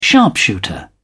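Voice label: "sharpshooter", British English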